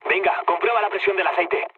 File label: damaged oil, mood low, speech; War Thunder